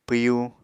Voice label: "pwyu", Apatani

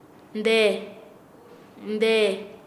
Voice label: "donde", Nasa Yuwe; paez

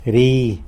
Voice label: "ri", tlacolula; are around

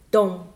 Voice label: bald, Mokilese

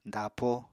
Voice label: "dapo", Apatani

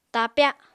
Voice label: "tapya", apatani

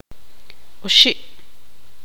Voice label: tzutujil; tres

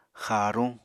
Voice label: "xaaruñ", Apatani

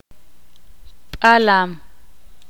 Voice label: "jaguar", Ixil

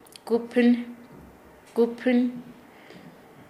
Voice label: Nasa Yuwe; desgranar

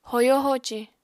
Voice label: "hoyo-hoci", apatani

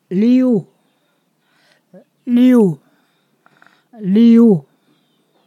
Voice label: "window", Ponosakan

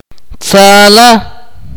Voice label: "be happy", Akateko

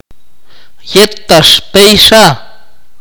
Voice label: "long ago", Akateko

